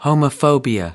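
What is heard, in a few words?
"homophobia", American English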